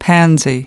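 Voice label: "pansy", US English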